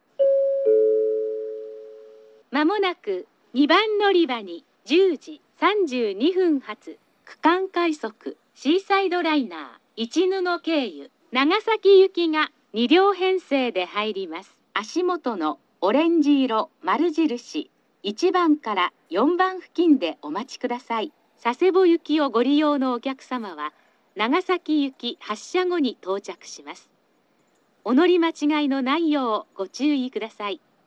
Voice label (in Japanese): 2番のりば予告放送; ハウステンボス; 2番のりば予告放送（区間快速シーサイドライナー 長崎行き）; ※乗車口 オレンジ色○印1〜4